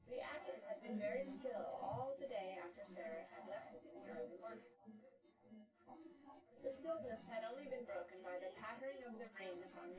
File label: female synthconv